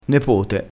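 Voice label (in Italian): "nepote", dialettale crespinese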